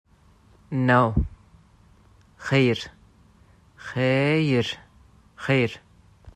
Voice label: No. Xeyr, azerbaijani

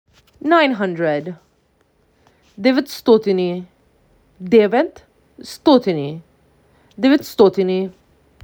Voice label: MAC 6, macedonian phrasebook

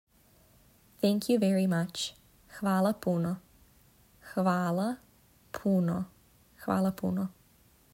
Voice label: "Thank you very much", Serbian

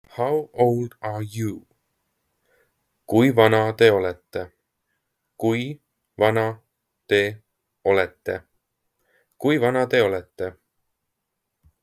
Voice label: How old are you, estonian; the talking estonian phrasebook

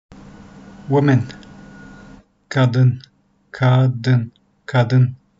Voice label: women, Turkish; the talking turkish phrasebook

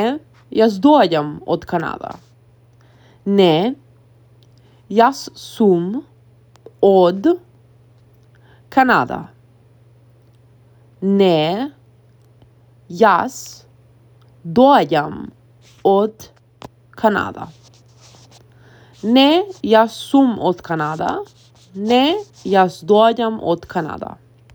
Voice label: I am from Canada, Macedonian phrasebook; the talking macedonian phrasebook

